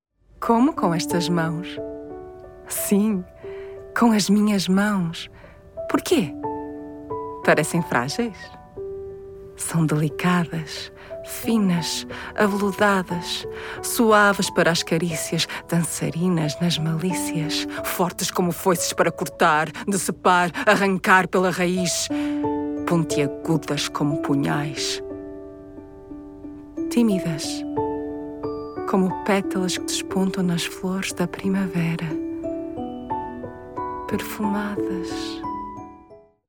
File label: Portuguese